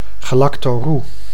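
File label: "galactorroe", Dutch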